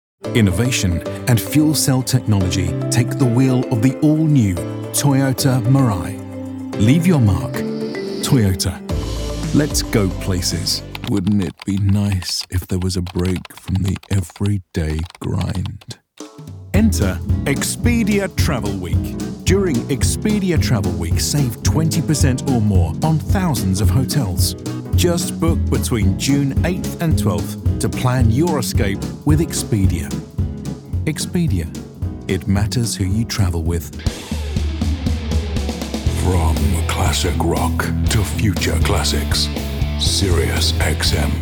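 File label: Commercial, British Male Voiceover; provoiceactor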